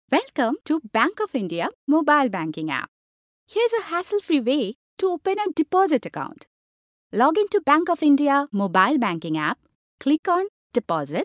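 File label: Bengali, female, English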